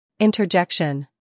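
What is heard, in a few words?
"humor", British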